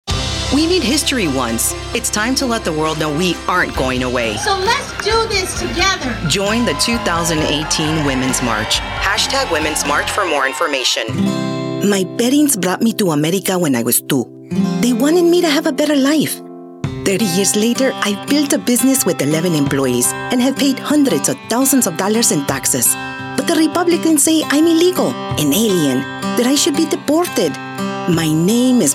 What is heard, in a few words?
English Political, voiceover